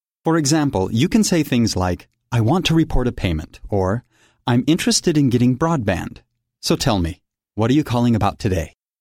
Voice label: Phone Prompt